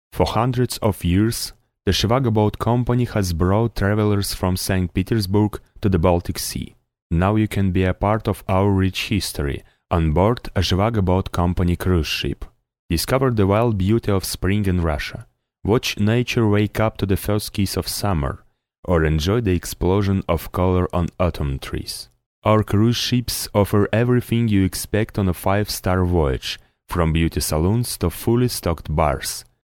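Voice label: Russian, male, English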